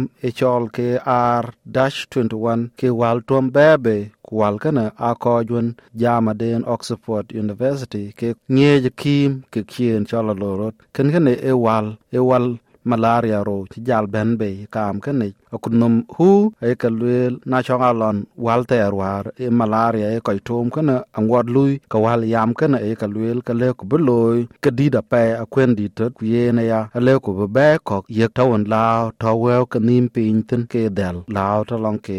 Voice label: dinka, podcast; New Malaria Vaccine; SBS Dinka

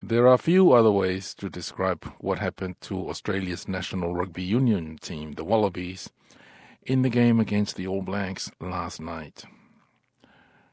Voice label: samoan; SBS Samoan; Faaauau ona faiaina Ausetalia i le Ipu o le Bledisloe

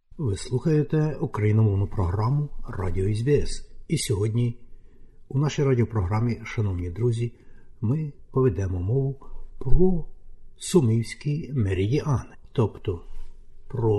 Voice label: ukrainian; SBS Ukrainian

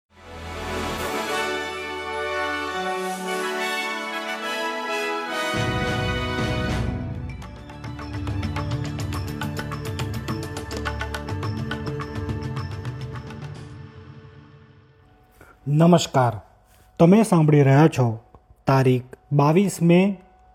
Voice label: gujarati newsflash; SBS Gujarati Australian update: 22 May 2025

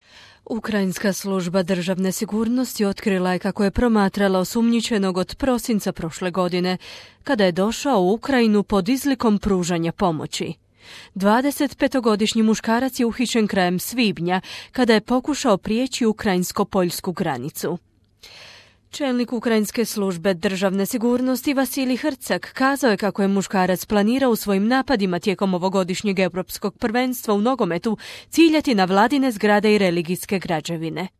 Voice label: croatian

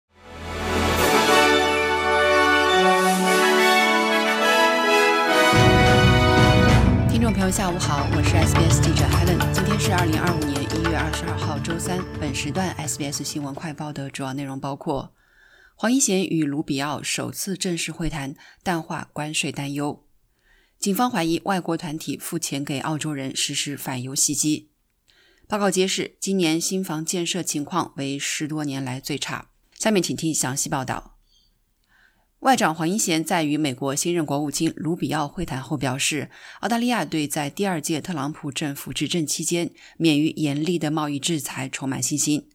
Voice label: mandarin newsflash; 黄英贤与卢比奥首次正式会谈 淡化关税担忧; SBS Chinese; SBS新闻快报

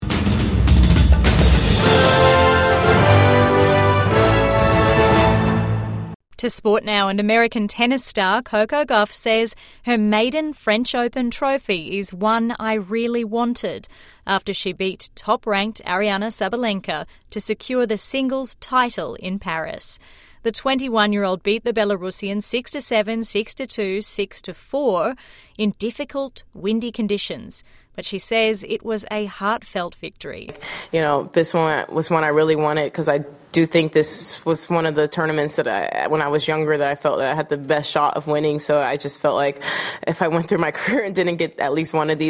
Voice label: english; tennis star coco gauff wins french open

